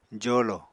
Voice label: "jolo", apatani

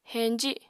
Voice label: "heñji", apatani

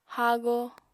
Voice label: "haago", Apatani

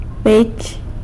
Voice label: "beich" buzzard; san lucas quiavini

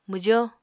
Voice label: "mwjo", Apatani

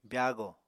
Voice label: "byaago", Apatani

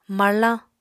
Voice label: "marlañ", Apatani

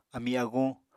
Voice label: "ami-aguñ", Apatani

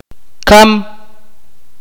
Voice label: "die", Akateko

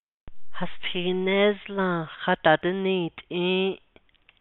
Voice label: navajo; We dist pl are looking for Mr Nez not for s

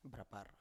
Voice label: "brapar" Biak

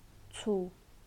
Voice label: "fast", naxi

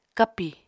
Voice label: "kapi", Apatani

